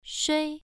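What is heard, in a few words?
"shui" Mandarin Chinese, first tone, pronunciation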